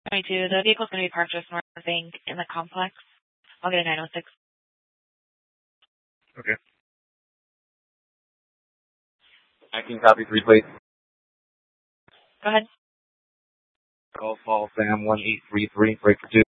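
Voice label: police department Dispatch, scanner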